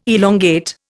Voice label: "elongate", Indian